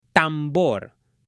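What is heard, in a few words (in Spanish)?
"tambor", Spanish, male; JUGUETES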